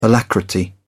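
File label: "alacrity", British English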